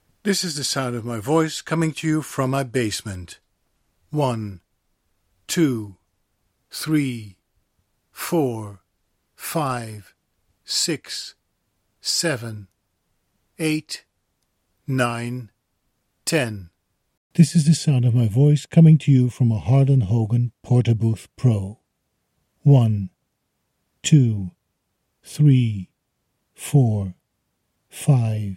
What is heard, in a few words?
Booth comparison; Nethervoice